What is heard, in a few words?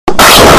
distorted poyo; kirb